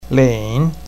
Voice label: "lin3", Chinese pinyin